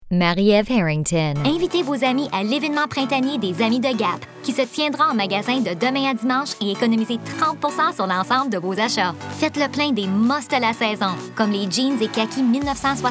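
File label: french canada commercial, Commercial Voice Talent; FRENCH (CANADA) » Energetic, young, warm, quirky, French, fast talker.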